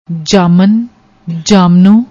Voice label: "jaaman", Punjabi